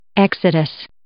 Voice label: "exodus", English

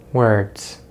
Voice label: "words", American English; Consonants in American English; easypronunciation

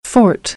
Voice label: "forte", American; ɔːr - ɔː

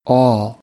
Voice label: "all", American English

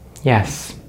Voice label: "yes", English; Consonants in American English; easypronunciation

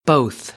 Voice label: "both", American English; oʊ - əʊ

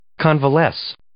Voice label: "convalesce", English

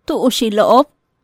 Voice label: "Tu'uxilo'ob", Maya; De dónde son ellos

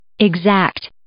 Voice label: "exact", English

